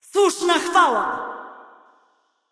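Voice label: Polish assist; VO; Kayle; bloom minigame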